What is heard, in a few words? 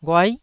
"ghuai9", Taiwanese syllable